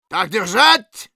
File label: medic cheers, ru; «Так держать!»